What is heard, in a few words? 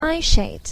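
"eye shade", English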